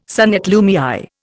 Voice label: "son et lumière", Indian

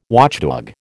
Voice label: "watchdog", American

